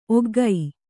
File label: "ಒಗ್ಗೈ", Kannada